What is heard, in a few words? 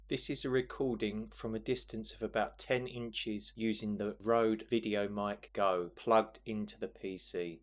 rode mic